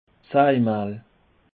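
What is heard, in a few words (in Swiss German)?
"sag mahl", Alsatian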